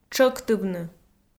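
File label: "тшӧктывны", Komi, female; audio dict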